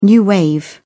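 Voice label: "new wave", British English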